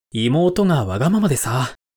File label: man